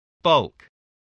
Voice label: "bulk", spelling